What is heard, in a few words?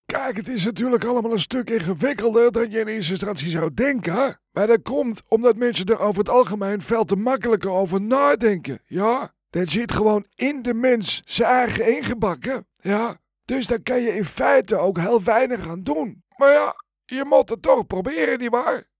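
Cartoon Character voice Dutch native Hoarse, male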